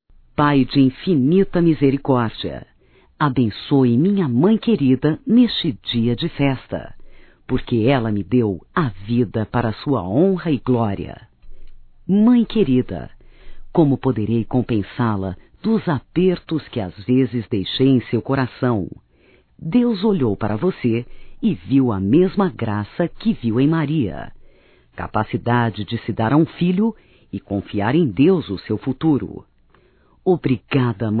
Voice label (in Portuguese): ANIV MãE, Telemensagens, Evangelico, fem; Telemensagens 2017